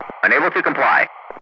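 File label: "unable to comply", English, Ground Crew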